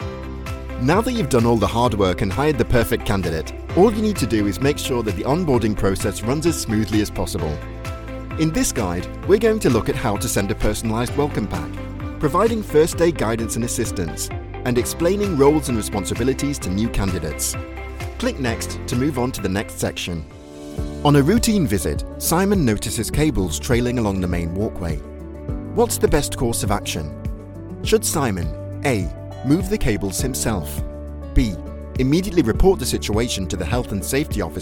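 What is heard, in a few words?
English UK, E-Learning; GoLocalise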